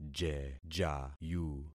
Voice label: "jejayu", concat syllables; Affixing experiment